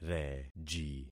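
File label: "reji", concatenated syllables; Affixing experiment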